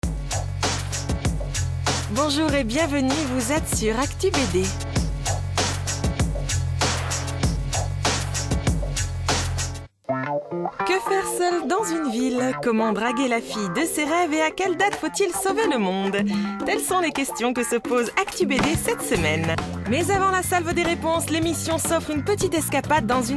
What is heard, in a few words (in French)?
Présentatrice voix off; ActuBD; NT1